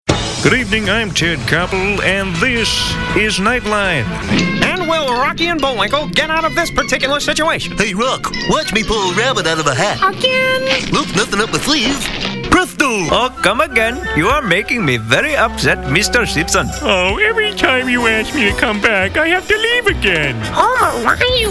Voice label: Character Voices